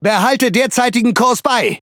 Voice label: Speech Pilots Fighter Airborne, DE; World Of Warships